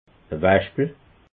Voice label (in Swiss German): "waschpel", alsace